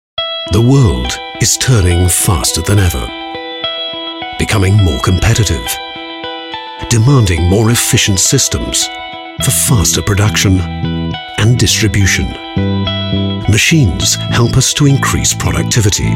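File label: British English, male, corporate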